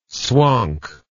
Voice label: "swonk", English word